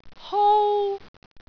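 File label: "hou1", Cantonese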